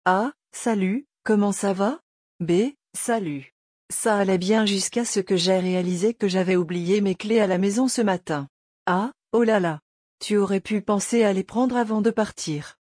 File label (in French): conversation; زمان گذشته کامل در فرانسه